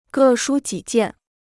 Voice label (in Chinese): "各抒己见", Chinese